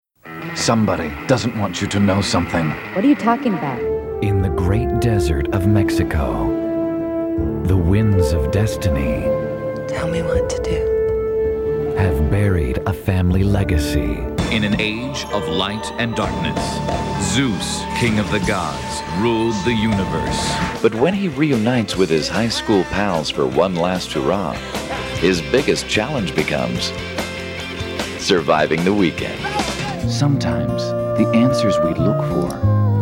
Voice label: Male, promos